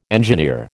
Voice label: "engineer", American